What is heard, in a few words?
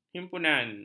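"himpunan", Malay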